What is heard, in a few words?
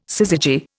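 "syzygy", Indian English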